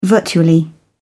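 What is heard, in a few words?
"virtually", English, British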